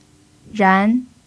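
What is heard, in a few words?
"ran" second tone, chinese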